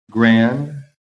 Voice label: "GRAND", american

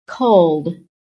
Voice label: "BAD", american

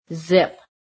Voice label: "zip", phonetic

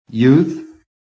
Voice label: "YOUTH", american

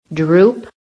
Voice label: "DROOP", american

